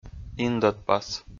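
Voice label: "индӧдпас", Komi-Zyrian, male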